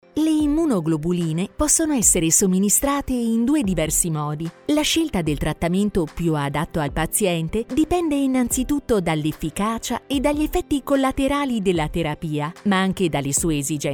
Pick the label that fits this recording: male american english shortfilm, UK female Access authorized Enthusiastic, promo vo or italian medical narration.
italian medical narration